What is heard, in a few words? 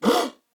Surprised, Corpus Venus Male; Dialog; Barks; Battle; Warframe; CorpusVenusMaleA